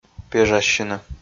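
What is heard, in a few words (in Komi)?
"пежассьыны", Komi, male; audio dict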